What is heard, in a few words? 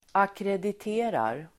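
"ackrediterar", Swedish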